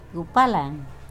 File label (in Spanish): Nawat palabras podrido; pipil